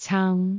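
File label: "caang3", Cantonese; amazonHiuJin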